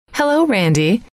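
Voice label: hello, female; trainer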